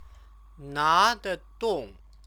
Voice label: "nadedong", Chinese

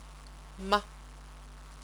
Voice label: "ma", Chinese

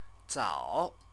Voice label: "zao", Chinese; CTCFL; University of Oxford

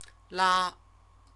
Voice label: "la", Chinese; CTCFL; University of Oxford

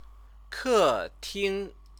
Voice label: "keting", Chinese

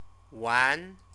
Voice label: "wan", Chinese

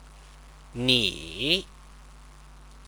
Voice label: "ni", Chinese; you

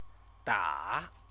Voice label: "da", Chinese

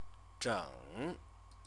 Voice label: "zheng", Chinese; CTCFL; University of Oxford

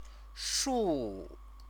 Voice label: "shu", Chinese

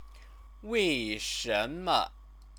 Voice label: "weishenme", Chinese; 为 什 么; why; question word; Chinese lessons

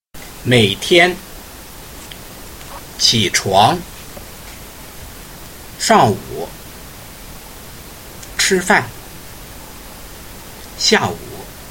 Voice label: Vocab, Reading pinyin

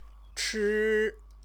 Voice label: "chi", Chinese

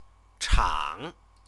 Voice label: "chang", Chinese

